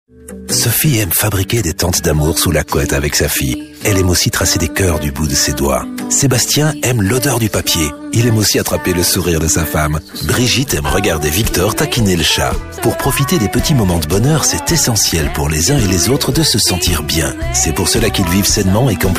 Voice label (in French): french be m commercial; voice demos